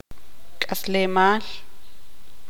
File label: tzutujil; vivir